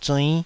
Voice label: "zuinn5", Taiwanese